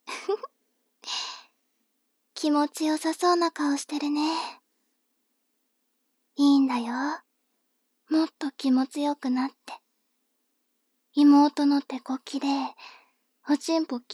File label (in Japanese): 妹 台詞